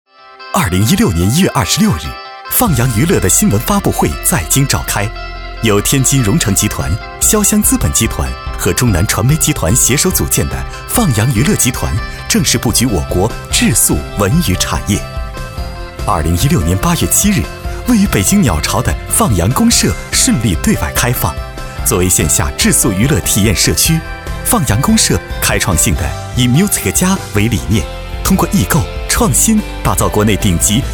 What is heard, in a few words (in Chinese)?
男 专题 音乐节; 清新音乐节